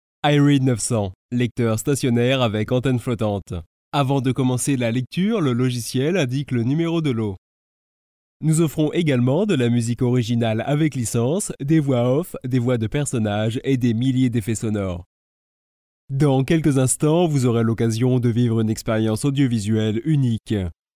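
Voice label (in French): French, male, narration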